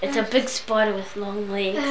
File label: English, New Zealand School Children